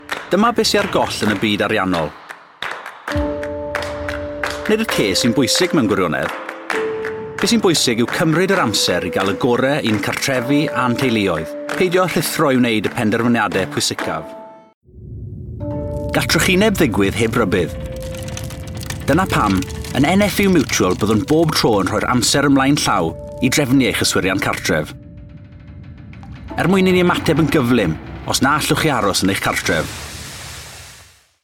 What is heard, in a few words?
Welsh; Male, 20s-30s